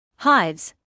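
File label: "hives", British English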